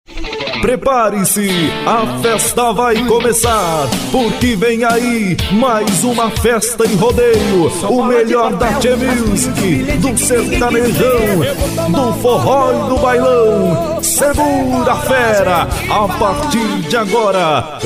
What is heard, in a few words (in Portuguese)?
LOCUTOR RODEIO; vozes masculina